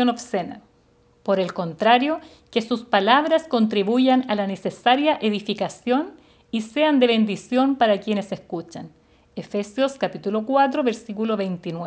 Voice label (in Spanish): devocional